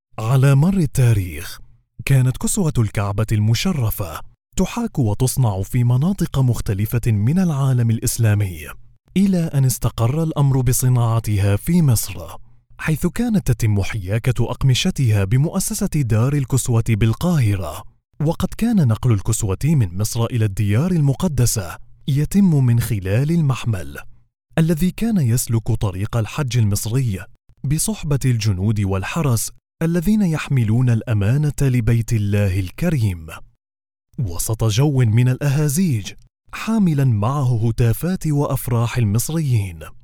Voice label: arabic e-learning

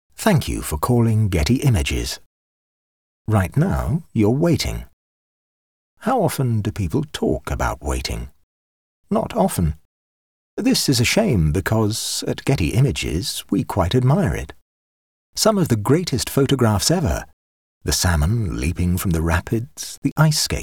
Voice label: english british promos

